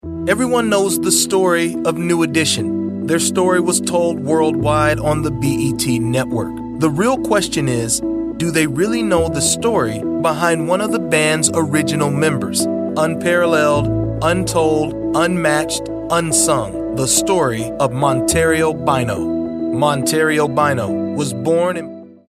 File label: american voiceover documentary